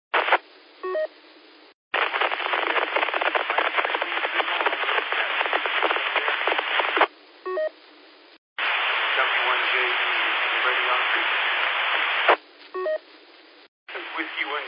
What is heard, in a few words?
qso rec simplex logic